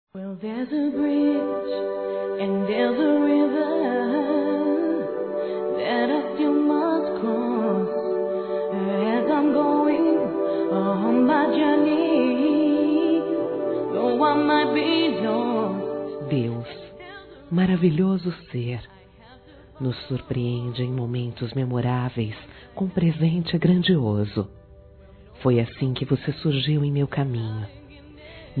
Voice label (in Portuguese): AGRADECIMENTO AMIZADE F, Telemensagens